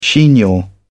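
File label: "chignon", British English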